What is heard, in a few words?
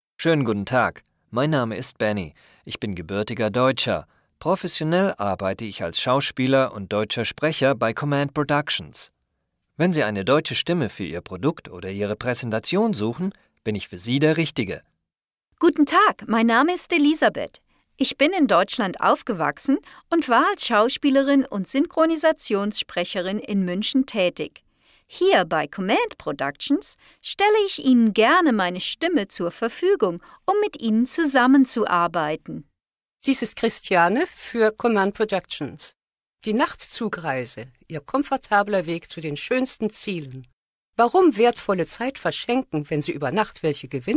German